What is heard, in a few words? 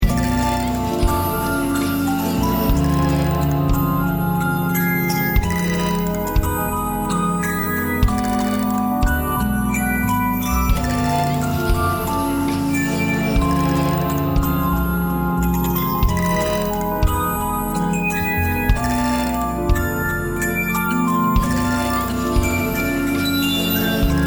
Mysterious Voice